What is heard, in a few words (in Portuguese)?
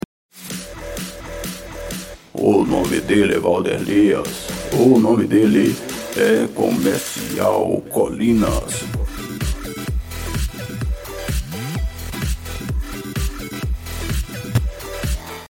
vinheta off; comercial colinas